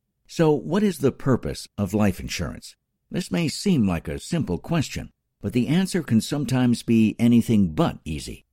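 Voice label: English Canadian M Commercial; accent of canada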